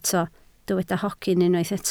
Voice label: "hogyn", Welsh